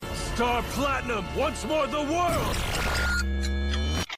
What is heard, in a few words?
dub; Star Platinum TW